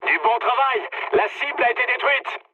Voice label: bomb success mood med, speech fr; War Thunder